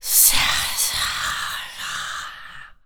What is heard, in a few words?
Zombies Waitress Taunt; zps